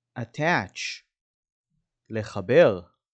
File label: "attach" "לחבר", dictionary